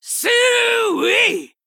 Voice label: Female Quilboar Attack

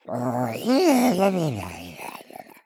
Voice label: Thinking

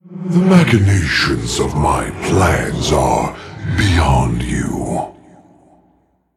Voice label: Demon Greetings

